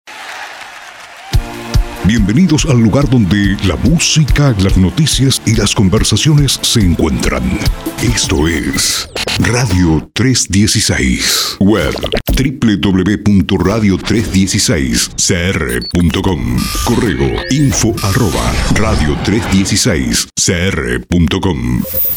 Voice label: Pack Programa Cristiano, Voz masculina; Arte generico cristiano; Para vestir tu programa radio; 10 spots personalizables

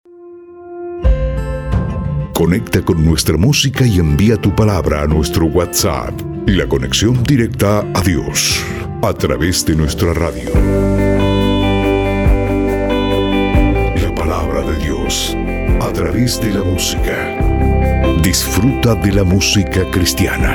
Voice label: Pack Cristiano, Voz masculina; Para tu radio cristiana; Arte generico pack 4

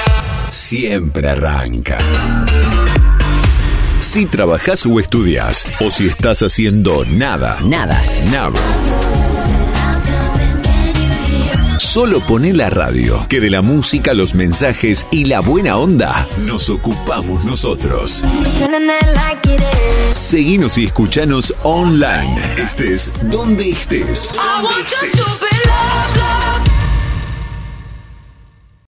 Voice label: Arte programa generico, Voz masculina; Para vestir tu programa; Arte generico pack 3; 10 spots personalizables

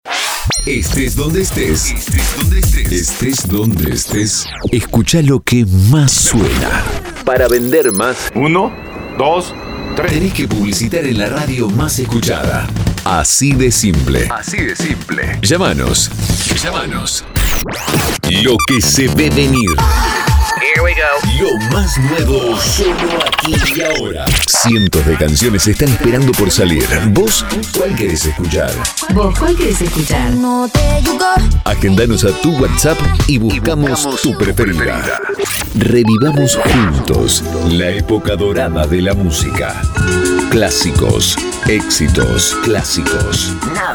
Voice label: Pack generico, Estilo generico atemporal, Voz masculina; 50 spots personalizables

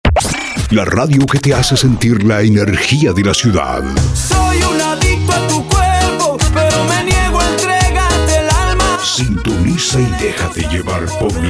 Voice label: Pack Urbano, Spots ESTILO URBANO, Separadores genericos, Voz masculina; 15 spots + 5 pisadores

